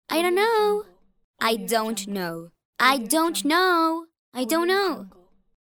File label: teenage girl saying i dont know